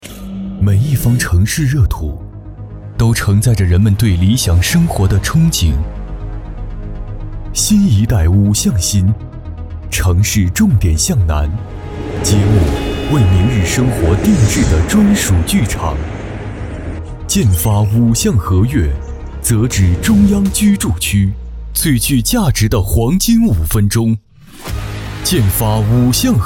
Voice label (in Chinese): 男 地产宣传; 建发房产